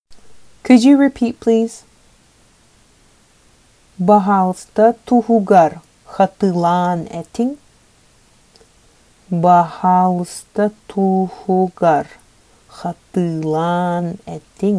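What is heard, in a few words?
Sakha Could you repeat please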